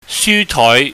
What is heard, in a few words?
"syutoi", Cantonese